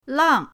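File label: "lang", Mandarin pinyin, fourth tone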